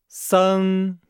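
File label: "seng", Chinese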